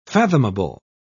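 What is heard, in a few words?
"fathomable", British English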